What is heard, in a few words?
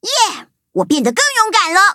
levelup zh-hant; cookie run